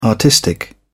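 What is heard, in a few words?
"artistic", English, British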